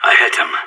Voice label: enemy down, radio; SAS